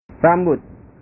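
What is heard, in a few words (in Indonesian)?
"rambut", Indonesian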